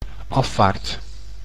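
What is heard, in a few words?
"afvaart", Dutch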